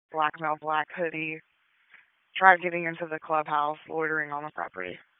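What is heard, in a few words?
Police District Dispatch, p25; Raleigh; Southeast; wake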